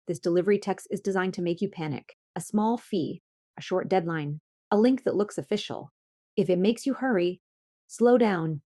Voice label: motivational; chatterbox taylor standard presets